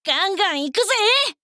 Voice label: 「ガンガン行くぜ！」, 女の子 オラオラ系少女 戦闘ボイス

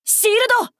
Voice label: 「シールド！」, 男の子 王道系少年主人公 戦闘ボイス

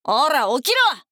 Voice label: 「おら！起きろ！」, 女の子 オラオラ系少女 戦闘ボイス

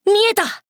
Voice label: 「見えた！」, 男の子 王道系少年主人公 戦闘ボイス

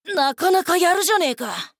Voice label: 「なかなかやるじゃねぇか！」, 女の子 オラオラ系少女 戦闘ボイス

